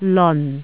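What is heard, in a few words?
"leon4", Cantonese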